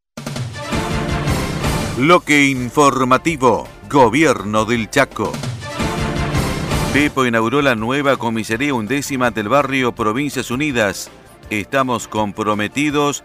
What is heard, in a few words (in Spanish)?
bloque informativo